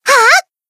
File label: Battle Shout; Aris Maid